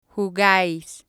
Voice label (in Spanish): "jugais", spanish